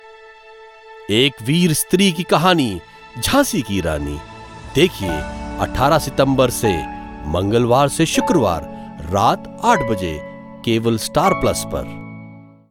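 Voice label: prog promo hindi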